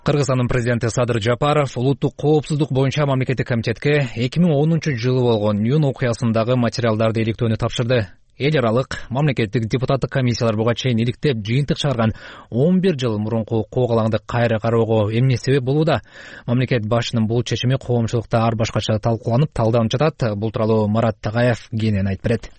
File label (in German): kirgisisch, Sprachen